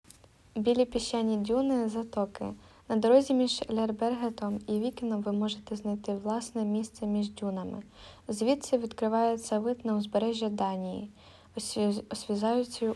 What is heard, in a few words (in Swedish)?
ukrainska; Vikens vita sanddyner